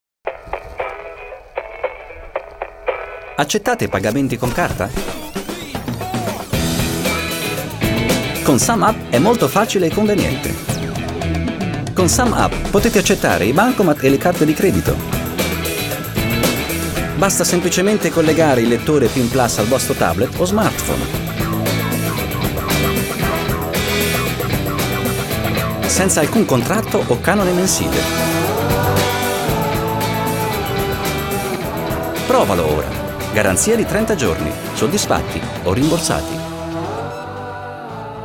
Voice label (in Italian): werbung; ita